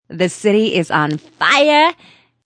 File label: Woman Says The City Is On Fire; Woman talking; Talking Sound Effects; Voice